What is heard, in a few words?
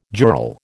"jural", American